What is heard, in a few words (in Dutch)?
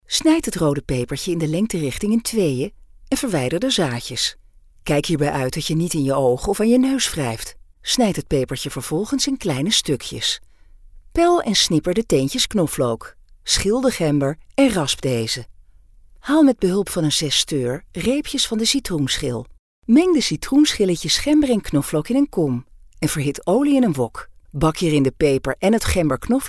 Neutraal; DeStemmen